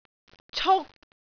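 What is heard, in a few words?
"cuk3", Cantonese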